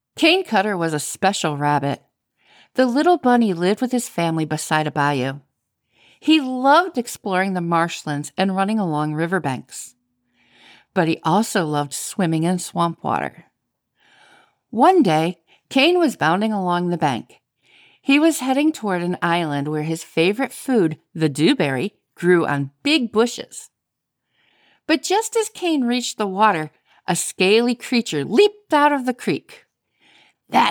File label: Fiction Childrens Audiobook; Boston area; home studio